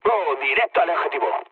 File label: bomb success, mood low, speech; War Thunder